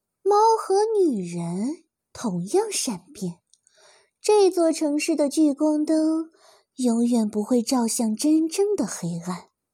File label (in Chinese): 少御音; 阿珂